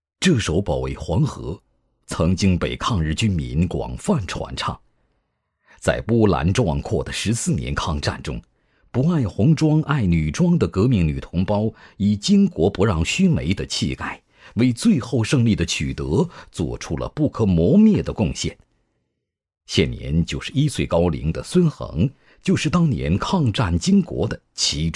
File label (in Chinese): 历史记录巾帼抗战配音